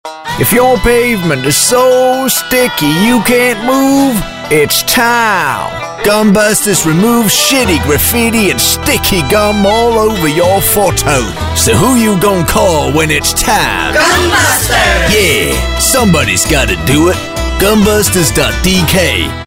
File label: English animation